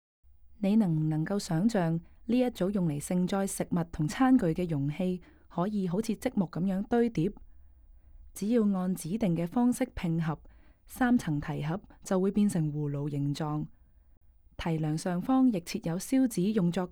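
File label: Cantonese, audio guide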